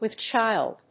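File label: "with child", English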